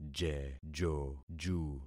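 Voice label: "jejoju", concatenated syllables; Affixing experiment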